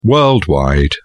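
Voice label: "worldwide", British English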